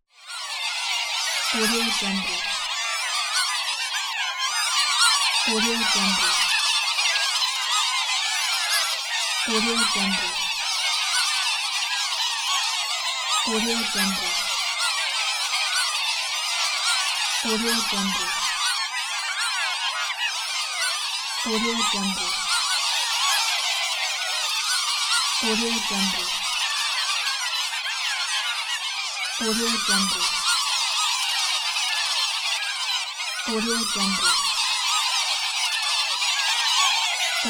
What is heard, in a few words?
crowd of angry minions